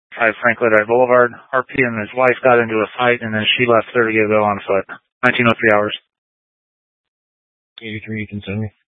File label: Scottsdale Police, Simulcast